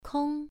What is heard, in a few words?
"kong1", pronunciation